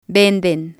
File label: "venden", Spanish